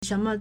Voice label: "shen2me", Chinese

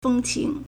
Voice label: "feng1 qing2", Chinese

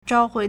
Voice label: "zhao4hui2", Chinese

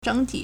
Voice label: "zheng3ti3", Chinese

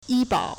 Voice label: "yi1bao3", Chinese

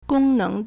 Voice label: "gong1 neng2", Chinese

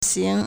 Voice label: "xing2", Chinese

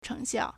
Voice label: "chengxiào", Chinese

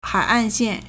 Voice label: "hai3an4xian4", Chinese

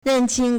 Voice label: "ren4 qing1", Chinese

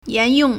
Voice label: "yan2yong4", Chinese

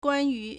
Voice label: "guan1yu2", Chinese

